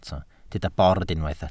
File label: "bord", Welsh